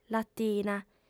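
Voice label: "latina", female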